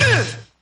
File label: "uh" scratch; ooh ahh; uh um